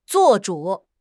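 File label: "做主", Chinese; HSK6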